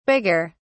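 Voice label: "bigger", English, British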